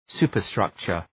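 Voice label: "superstructure", English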